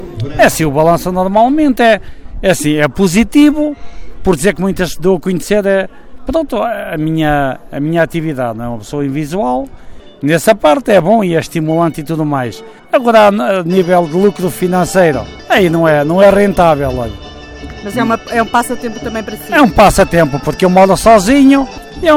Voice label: Vox pop expositores; desfolhada de murcos

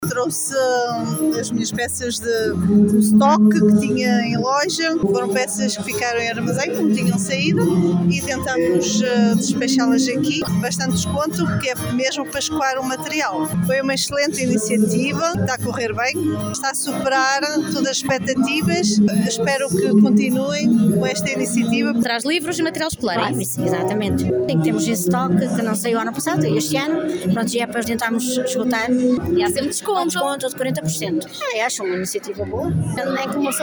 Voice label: vox pop

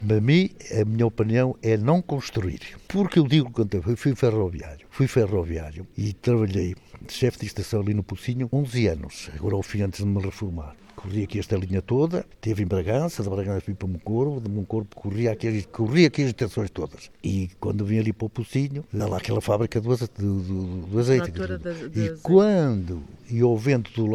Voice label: vox pop população; carvicais

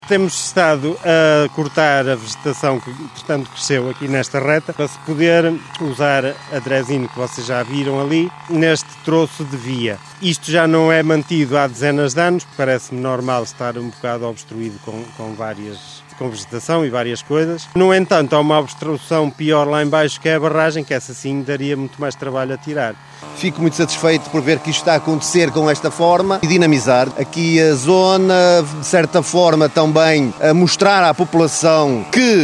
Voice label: vox pop; circulacao ferrea de regresso a corticos

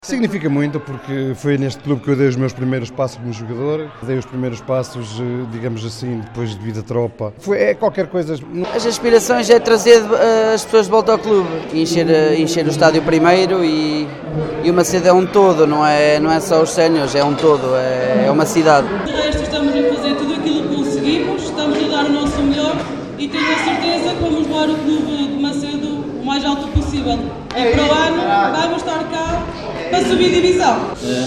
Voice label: vox pop

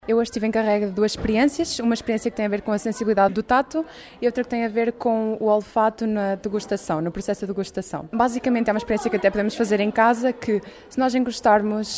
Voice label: vox pop alunos

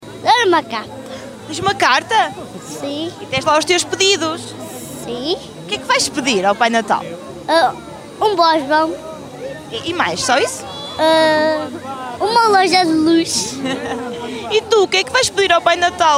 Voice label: vox pop pequenos; O Pai Natal chegou este sábado a Macedo de Cavaleiros.

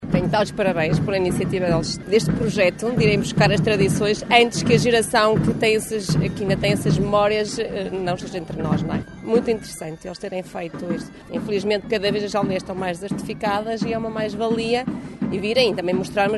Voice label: vox pop locais

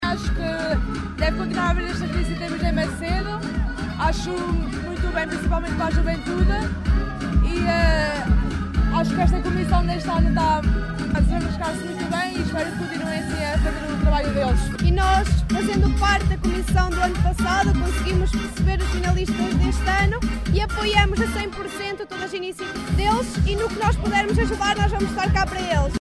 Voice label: vox pop miúdas

